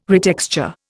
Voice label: "retexture", Indian